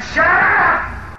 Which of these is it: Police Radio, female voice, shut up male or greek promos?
shut up male